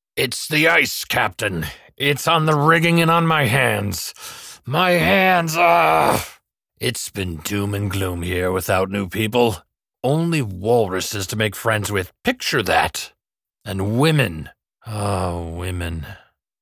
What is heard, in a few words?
Character Lines Pirate; voiceover artist